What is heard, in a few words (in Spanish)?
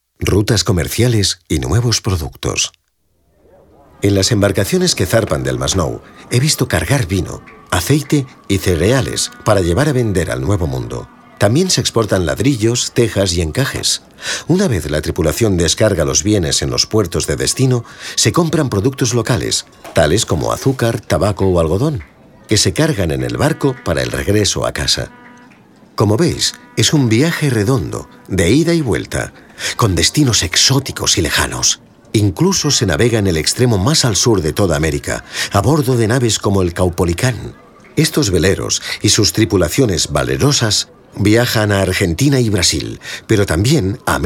audioguia esp